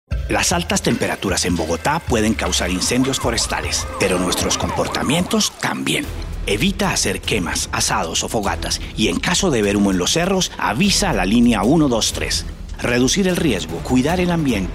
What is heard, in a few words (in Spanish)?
20 segundos Hombre